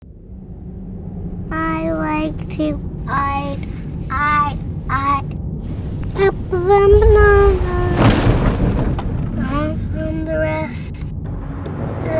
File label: english new zealand; voice actor